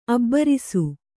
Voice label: "ಅಬ್ಬರಿಸು", kannada